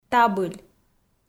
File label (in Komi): "табыль", Komi